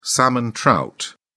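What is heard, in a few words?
"salmon trout", British English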